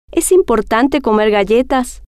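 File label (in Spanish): es Importante Comer Galletas, spanish, female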